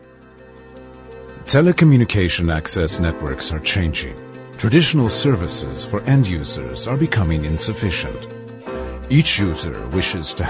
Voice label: Corporate Promotion